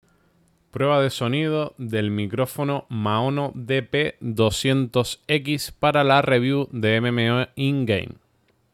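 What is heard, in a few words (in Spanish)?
Prueba de Audio Maono PD200X, micrófono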